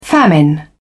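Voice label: "famine", English, British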